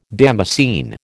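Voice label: "damascene", American English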